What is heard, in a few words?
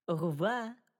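"au revoir", French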